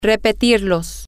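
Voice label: female, spanish; to Repeat It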